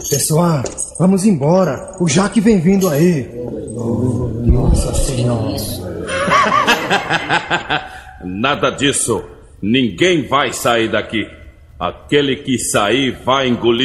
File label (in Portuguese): Vinheta Voz